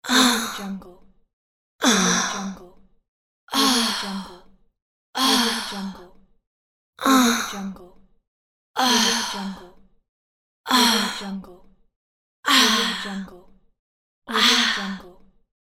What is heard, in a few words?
ooh sexy female voice